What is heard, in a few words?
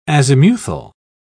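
"azimuthal", British English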